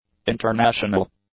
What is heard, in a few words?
"international", english; adjectives